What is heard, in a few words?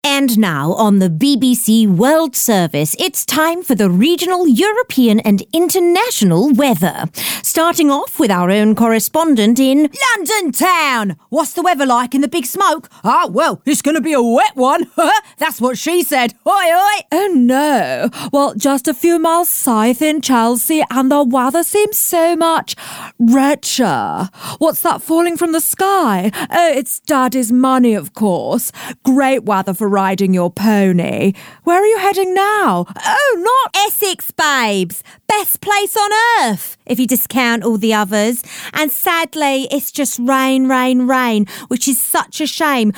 Accent